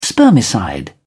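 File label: "spermicide", British English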